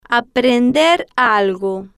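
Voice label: "aprender algo", Spanish, female, slow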